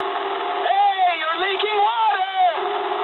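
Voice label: damaged water mood medium, speech English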